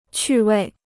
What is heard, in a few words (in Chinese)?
"趣味", Chinese